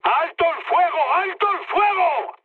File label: player friendly fire, mood high, speech